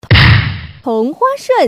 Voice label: tonghuashun, woman